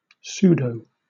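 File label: "pseudo", British English; pronunciations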